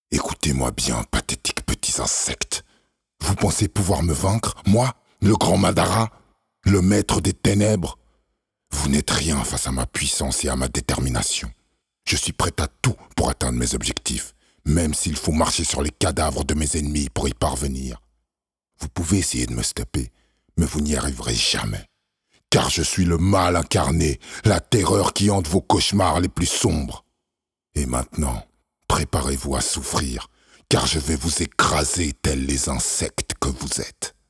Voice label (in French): Voix off; Madara